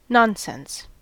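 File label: "nonsense", US English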